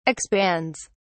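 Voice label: "expands", British English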